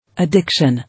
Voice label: "Addiction", English

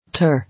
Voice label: "Tear", English

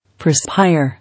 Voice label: "Perspire", English

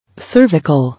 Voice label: "Cervical", English

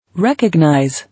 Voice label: "Recognize", English

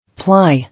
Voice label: "Ply", English

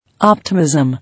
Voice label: "Optimism", English

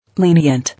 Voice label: "Lenient", English

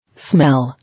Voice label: "Smell", English

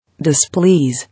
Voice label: "Displease", English

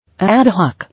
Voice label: "Ad hoc", English

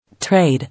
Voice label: "Trade", English

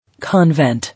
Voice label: "Convent", English

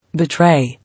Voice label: "Betray", English

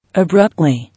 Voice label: "Abruptly", English

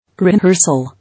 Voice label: "Rehearsal", English

